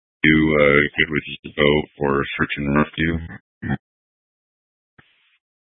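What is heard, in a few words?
Incident Tac 1, Scanner audio recording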